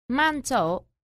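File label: "man zou", Chinese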